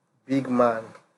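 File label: "big man", Nigerian Pidgin, male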